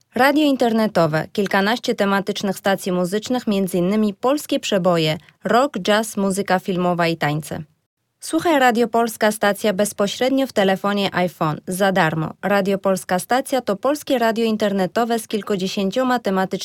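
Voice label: Polish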